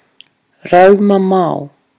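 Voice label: "raumamao", Māori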